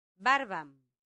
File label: "barbam", latin; santillana